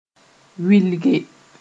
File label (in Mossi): "wɩlge", moore